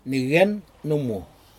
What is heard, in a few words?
fish tail, Aneityum